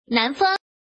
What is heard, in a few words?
woman